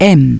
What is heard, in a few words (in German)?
"M geschlossen", Navigation; DISTEFORA Navigation; Mobile Navigator Notebook